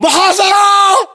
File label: inform suppressed generic, battle chatter, english